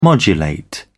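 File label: "modulate", British English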